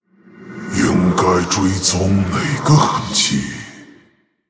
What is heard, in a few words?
Male Orc, Which tracks to follow...; ▶应该追踪哪个痕迹……; zh; Thinking3; 思考3; 死亡猎手雷克萨; Rexxar Deathknight